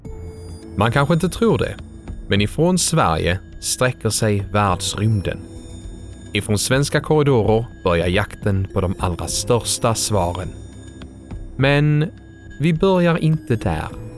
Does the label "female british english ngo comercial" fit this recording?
No